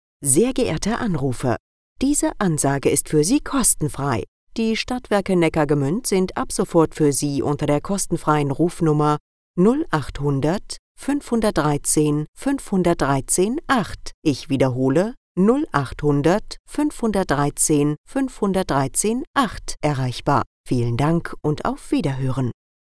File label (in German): Telefonansage